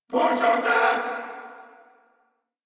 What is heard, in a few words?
Reload Corpus Venus Male; Warframe; Battle Barks